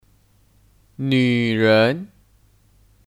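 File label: "女人", Chinese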